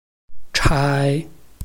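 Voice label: "chai", Chinese, tone 1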